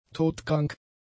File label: "todkrank", German; adjectives